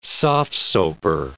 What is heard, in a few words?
"soft-soaper", English